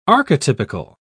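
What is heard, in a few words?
"archetypical", English, British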